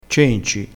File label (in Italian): "cenci", crespinese